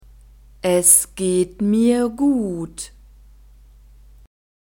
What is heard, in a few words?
"es geht mir gut", German